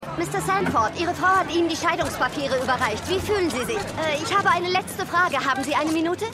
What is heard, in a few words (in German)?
Reporterin, Stimmproben